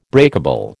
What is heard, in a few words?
"breakable", American